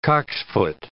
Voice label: "cocksfoot", English